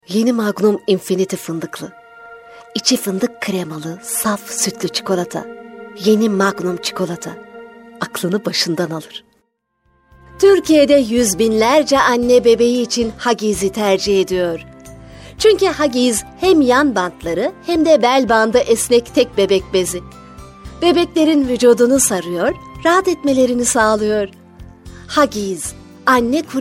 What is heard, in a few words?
turkish f commercial; voice demos